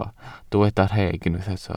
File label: "rheg", Welsh